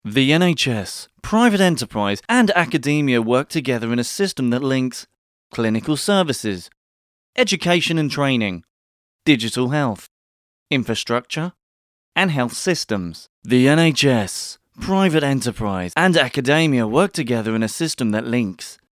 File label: Corp VO